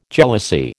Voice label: "jealousy", American